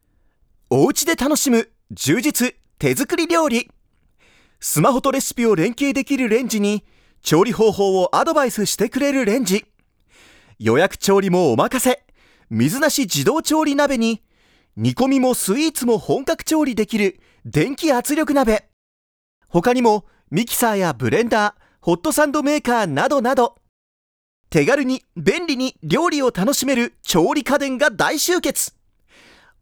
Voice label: cheerful briskness